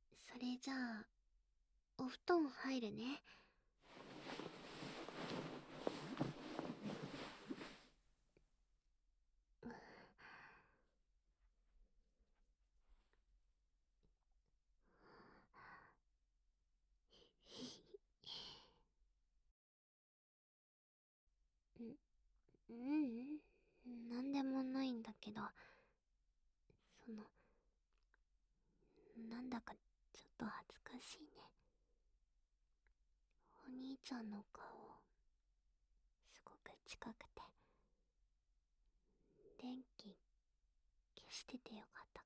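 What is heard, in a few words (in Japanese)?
一緒におやすみなさい, ASMR, 添い寝; お兄ちゃん大好きASMRシリーズ; しっかり妹さなとの甘々な日常; KU100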